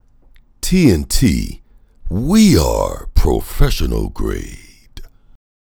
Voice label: Comercial; TNT